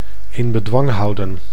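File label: "in bedwang houden", Dutch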